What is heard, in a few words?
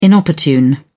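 "inopportune", British English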